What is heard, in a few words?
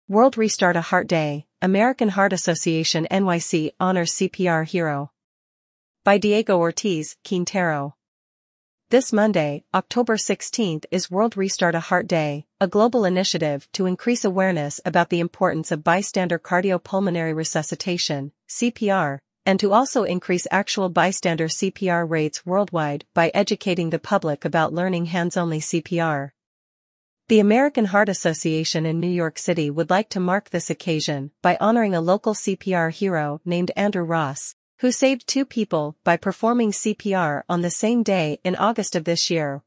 CPR voiceover